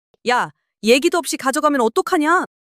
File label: anger; monologue